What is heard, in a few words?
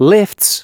announcements male